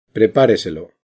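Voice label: "prepareselo", Spanish, castilla; acento lexical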